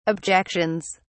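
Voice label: "objections", British English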